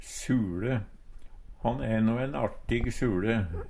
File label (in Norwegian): suLe, Numedalsmål; Substantiv hankjønn; Uttrykk